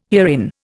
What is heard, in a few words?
"herein", Indian